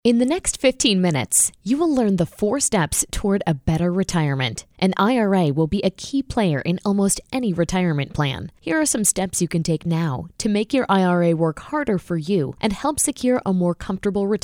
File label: eLearning Female; commercial voiceover